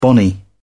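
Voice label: "bonny", British English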